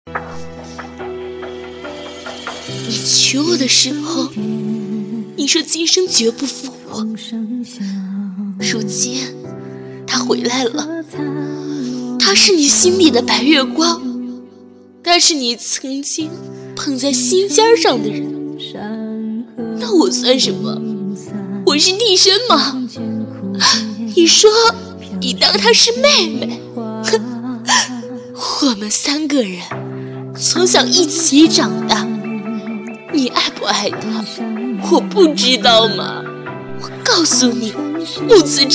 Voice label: 古风爆发哭腔

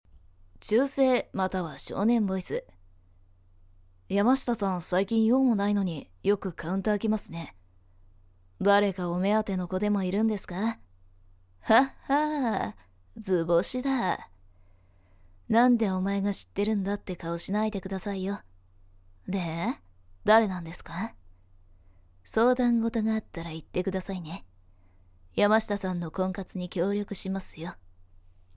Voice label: 少年音

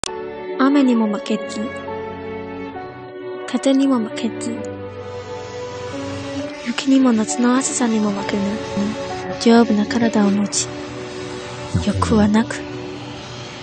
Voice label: 日语朗诵

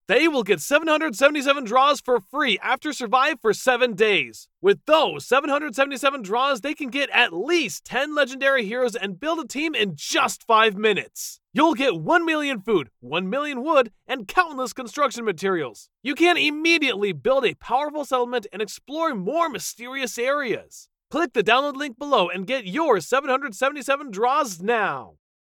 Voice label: 美籍美式男游戏信息流

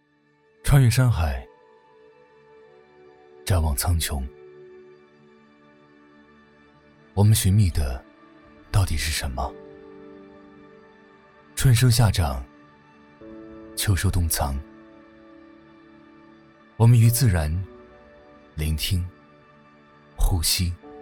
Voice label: 旁白; 低沉

